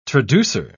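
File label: "traducer", British English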